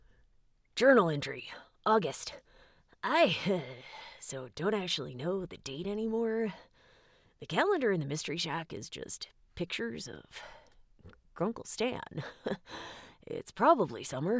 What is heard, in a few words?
Teen Non Bianary paranoid, ernest, curious